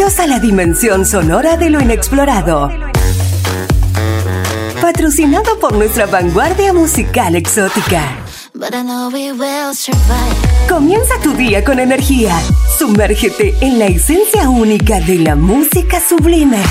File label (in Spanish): Arte Ambient FEM Primavera; primavera 2023